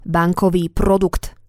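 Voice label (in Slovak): "bankovy produkt", Slovak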